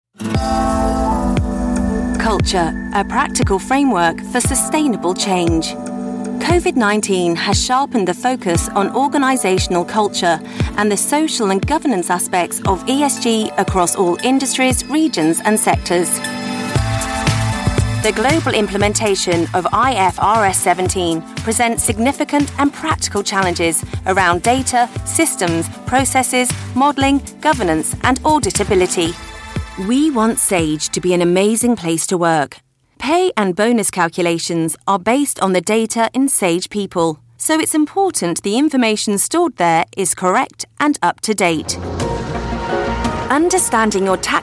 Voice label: corporate